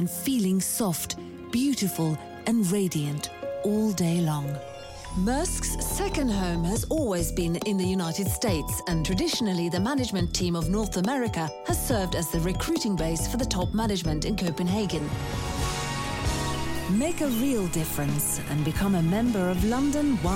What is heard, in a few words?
female, Norwegian, English with undetectable accent commercial compilation